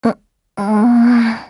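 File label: LOW MOOD Japanese; Type64-AR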